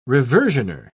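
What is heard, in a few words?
"reversioner", British English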